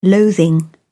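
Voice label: "loathing", English, British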